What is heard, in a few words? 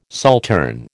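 "saltern", American English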